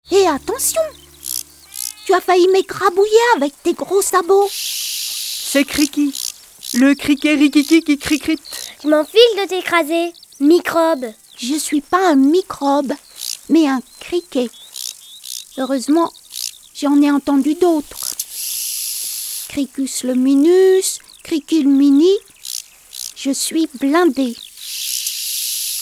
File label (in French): Histoire; Un jour à la montagne; Criki le criquet